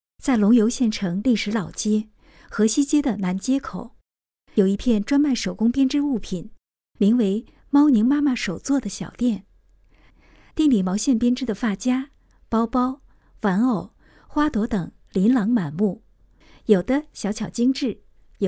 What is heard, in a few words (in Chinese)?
娓娓道来手作